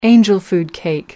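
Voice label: "angel food cake", British English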